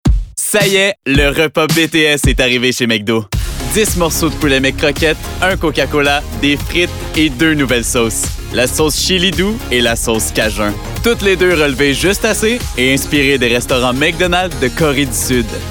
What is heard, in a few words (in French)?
pub annonceur fr qc naturel amical avec sourire promo adulte medium; mcdonalds